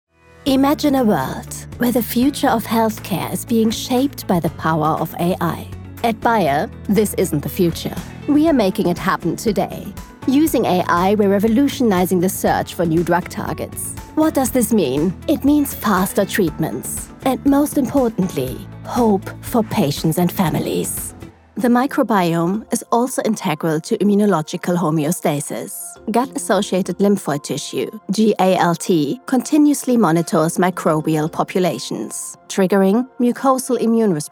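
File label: Narration Showreel English, voiceover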